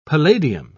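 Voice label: "palladium", British English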